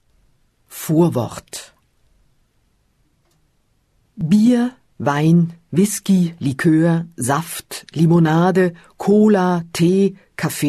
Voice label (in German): hoerprobe, Bayerische Blindenhörbücherei